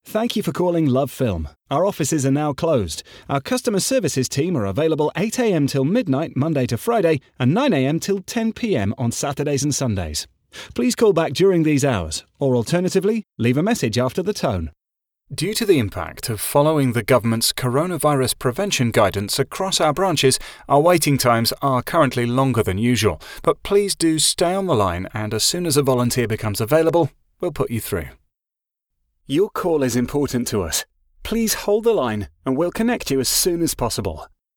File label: IVR